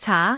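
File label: "caa2", Cantonese; microsoftHiuGaai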